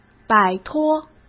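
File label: "摆脱", Mandarin Chinese; hsk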